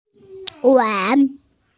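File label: "wan2", Mandarin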